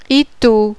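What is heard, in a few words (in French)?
"ETAU", French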